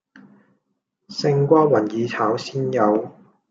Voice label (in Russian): Голоса, Гонконгский